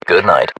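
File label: inform killfirm generic, battlechatter, British; localized english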